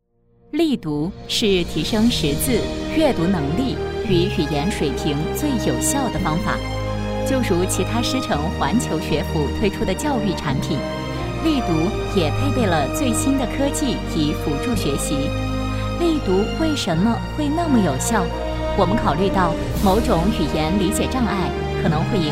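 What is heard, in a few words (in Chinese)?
女专题语言学习; 励读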